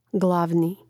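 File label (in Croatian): "glavni", hrvatski; mreznik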